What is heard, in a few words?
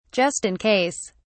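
"just in case", British English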